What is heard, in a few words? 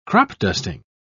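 "crop-dusting", British English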